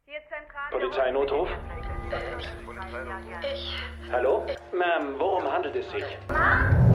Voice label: Zentrale F Polizeinotruf M; Truth Be Told

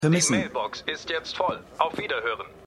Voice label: Mailboxstimme; Stimmproben; Arrow

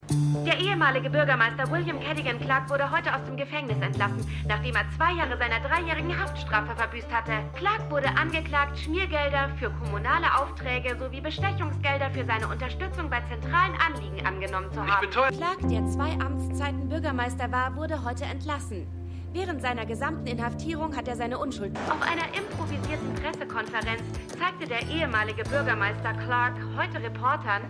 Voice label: Reporterin, Stimmproben; Republic Of Doyle

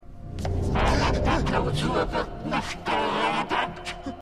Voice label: Lehrerin; Falling Water

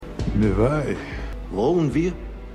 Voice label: Russischer Schlaeger; Marvel's The Punisher

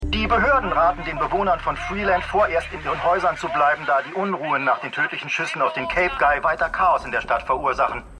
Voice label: Nachrichtensprecher; Black Lightning

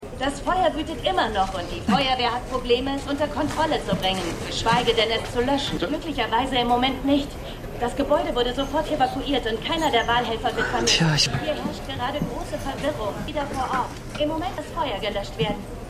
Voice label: Reporterin Milwaukee; Succession

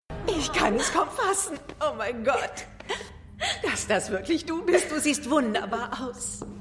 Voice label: Mutter; Eves Mutter; Supergirl

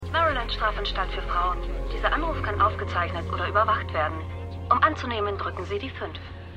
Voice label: Telefonstimme

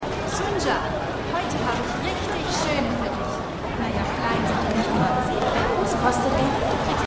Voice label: Fischverkaeuferin; FischverkaeuferinHG; Pachinko

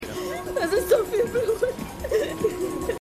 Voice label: Frau; New Amsterdam

